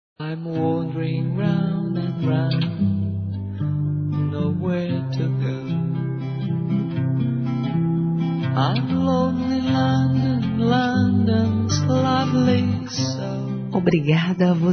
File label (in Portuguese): HOMENAGEM À SECRETÁRIA F; fem